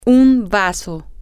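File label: cup, Spanish, female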